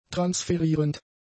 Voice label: "transferierend", German; adjectives